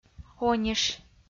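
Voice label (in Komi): "ониш", Komi, female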